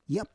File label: "yap", Roon